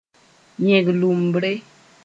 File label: "yẽg-lʋmbre", moore